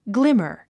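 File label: "glimmer", English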